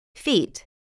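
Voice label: "feet", English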